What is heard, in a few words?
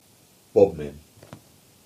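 "Bodmin", English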